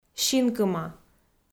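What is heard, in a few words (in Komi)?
"синкыма", Komi, female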